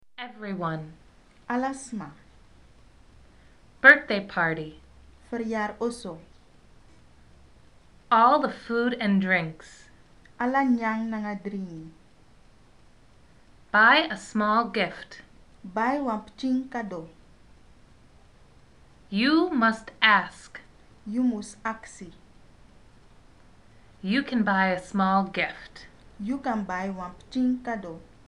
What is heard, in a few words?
phrases, Sranan; PeaceCorps